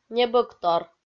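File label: "небӧгтор", Komi-Zyrian, female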